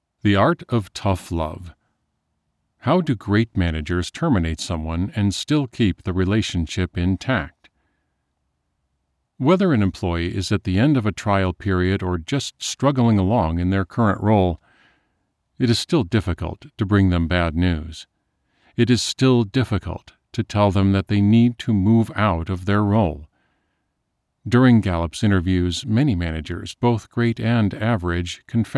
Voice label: Business, audiobook